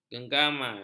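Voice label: "genggaman", Malay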